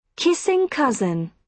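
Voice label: "kissing cousin", British English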